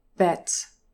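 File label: "bet", English, DRESS /e/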